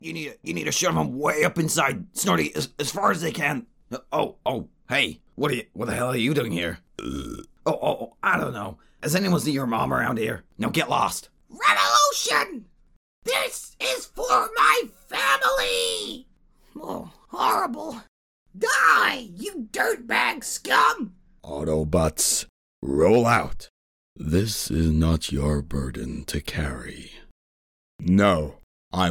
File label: Impressions Sound a Likes